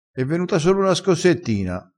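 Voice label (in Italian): "scossettina", dialettale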